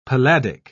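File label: "palladic", British English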